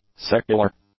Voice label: "secular", English; adjectives